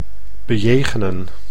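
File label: "bejegenen", Dutch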